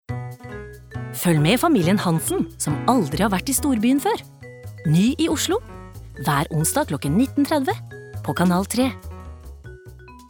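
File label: Norwegian promo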